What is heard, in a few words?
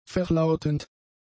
"verlautend", German; adjectives